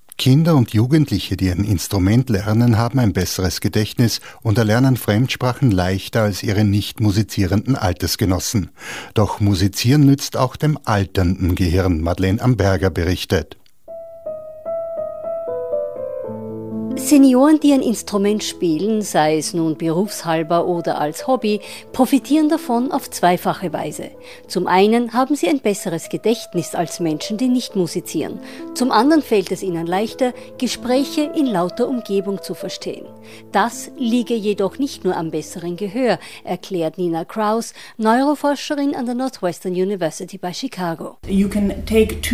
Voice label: Austrian radio